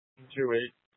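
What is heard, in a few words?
Tempe Police Department, scanner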